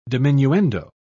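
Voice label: "diminuendo", British English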